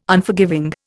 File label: "Unforgiving", Indian